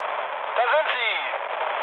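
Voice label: German enemy detected mood low, speech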